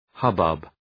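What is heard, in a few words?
"hubbub", English; {‘hʌbʌb}; Ουσιαστικό; θόρυβος; βαβούρα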